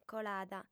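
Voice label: "colada", female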